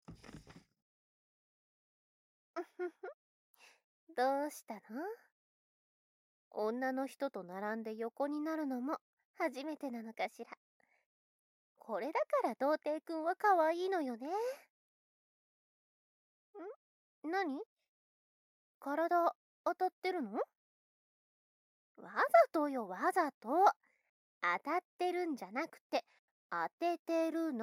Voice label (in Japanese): 囁き